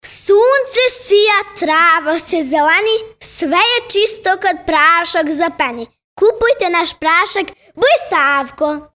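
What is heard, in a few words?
Serbian 8 year old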